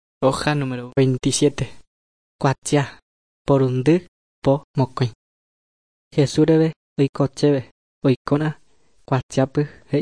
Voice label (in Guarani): guarani mbya; good news and words of life; bible